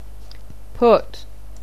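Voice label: "put", English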